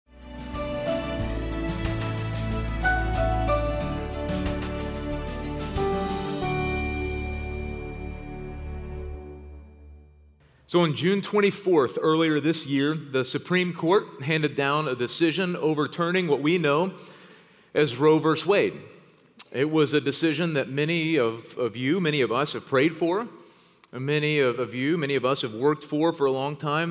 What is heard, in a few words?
Sermon English